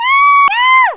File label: shriek; gba; Peach; mario kart super circuit